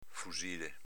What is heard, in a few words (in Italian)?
"fusile", dialetto veneto; s.m. fucile